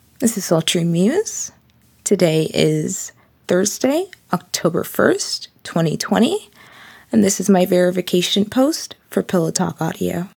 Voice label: F, Verification